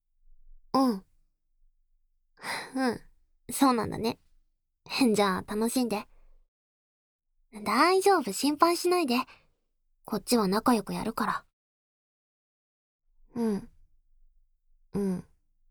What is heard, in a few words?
ASMR; 素直になった義妹と、しゅきしゅき濃厚エッチ; 効果音カット